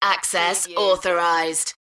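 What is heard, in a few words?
UK female, voice drop; Access authorized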